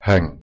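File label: "h2eng", Proto-Indo-European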